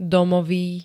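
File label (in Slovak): "domový", slovenské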